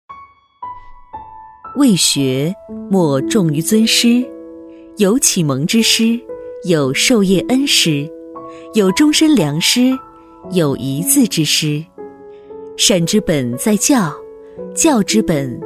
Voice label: 女58 朗读; 优质知性; 朗读 师道

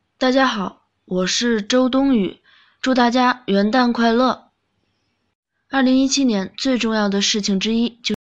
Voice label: 女; 模仿周冬雨

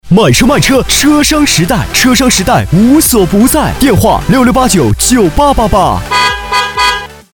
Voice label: 男 买车卖车（激情）

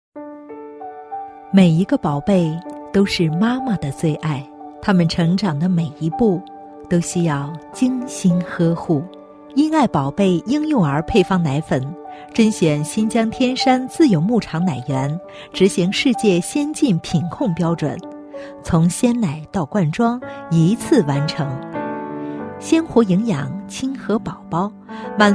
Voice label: 女 亲和; 成熟; 因爱宝贝奶粉

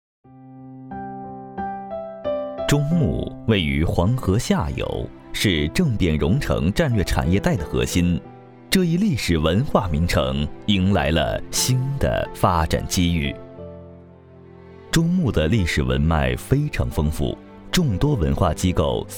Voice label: 男 年轻叙述; 中牟

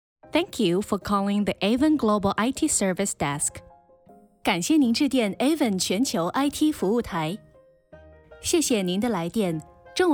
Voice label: 女 英-中-客服 彩铃 甜美; 雅芳

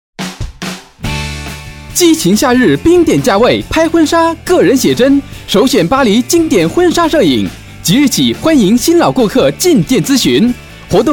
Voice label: 男23 婚纱促销（活力）

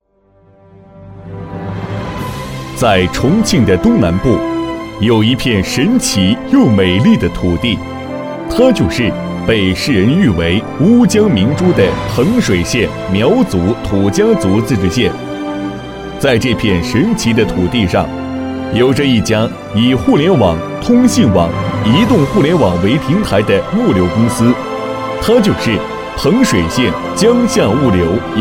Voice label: 男 物流公司（大气正式）

